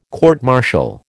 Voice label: "court martial", American